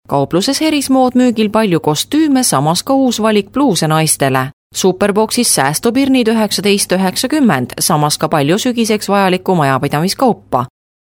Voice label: estonian female voiceover locutora estonia